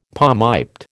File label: "palmiped", American